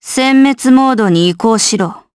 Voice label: Vox Skill, jp; Valance; kings raid

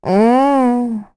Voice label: Deny, kr, voices; heroes; Rehartna; kings raid

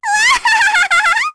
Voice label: Happy; Lilia; kingsraid

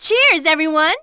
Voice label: Vox Skill, en; Juno; kingsraid; voices heroes en

